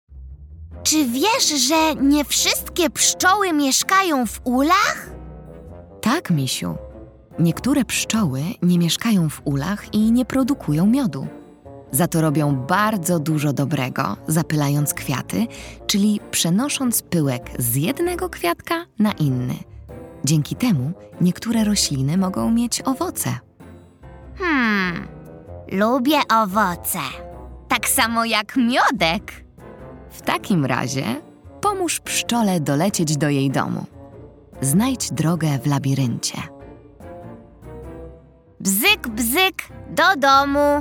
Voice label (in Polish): narracja; czasopismo mis; wiosna; bzyk bzyk do domu rozmowa